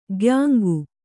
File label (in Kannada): "ಕಾಯಾಂಗ", kannada